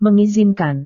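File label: "mengizinkan", Indonesian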